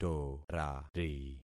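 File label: "torari", concatenated syllables; Affixing experiment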